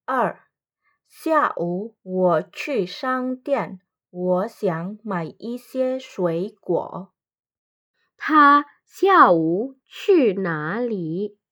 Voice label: Mandarin; mandarin placement test hsk1